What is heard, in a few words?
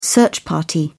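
"search party", British English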